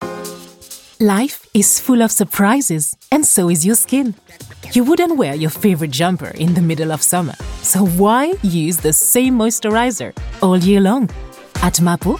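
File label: French, Accented English; GoLocalise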